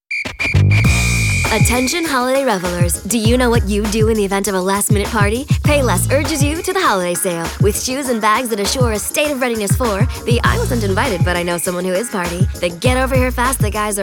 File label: commercial